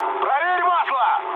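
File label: Russian damaged oil mood med, speech; War Thunder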